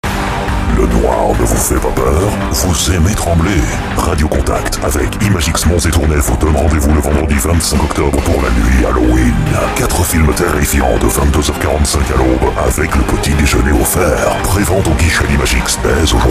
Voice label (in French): Voix Hom Halloween